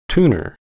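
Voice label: "tuner", American English; pronunciations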